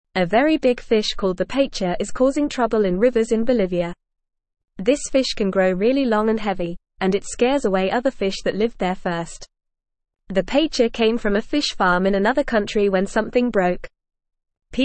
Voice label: Lower Intermediate FAST Reading, English; Trouble in the Amazon Big Fish Causing Problems; English Newsroom